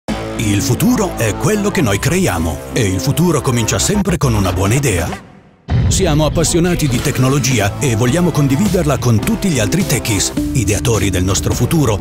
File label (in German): Imagefilm; Conrad